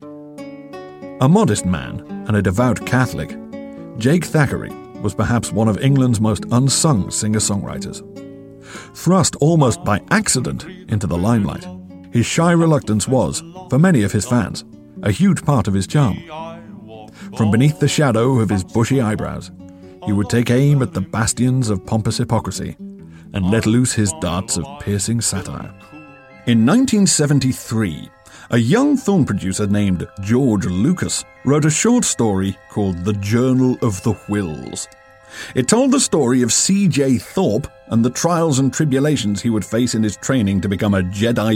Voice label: Narration